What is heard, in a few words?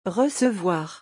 "recevoir" French; pronunciation; hard and soft vowels